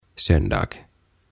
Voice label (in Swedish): "Söndag" "Sunnuntai"